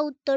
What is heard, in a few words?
Female